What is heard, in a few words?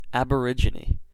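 "aborigine" US English; pronunciation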